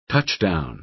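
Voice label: "touchdown", American English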